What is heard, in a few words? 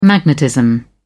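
"magnetism", British English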